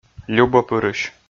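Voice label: "любӧпырысь" Komi, male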